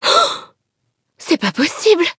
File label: defeat, fr; Cookie Run